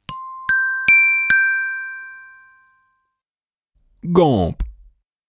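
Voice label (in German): "Guimpe", German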